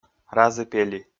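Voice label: "разі-пелі", Komi, male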